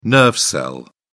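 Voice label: "nerve cell", British English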